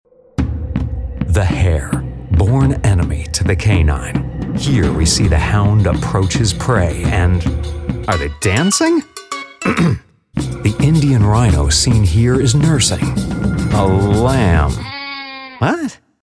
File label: Documentary with Quirky Interruptions